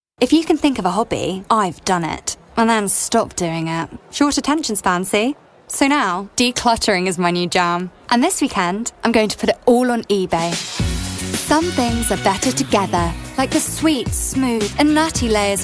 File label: Commercial